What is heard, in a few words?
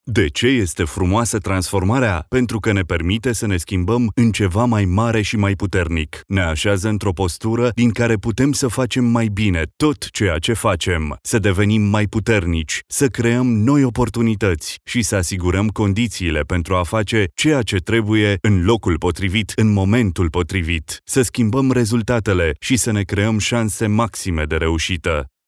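Romanian informative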